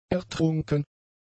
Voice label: "ertrunken", German; adjectives